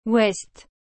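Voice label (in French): "ouest", French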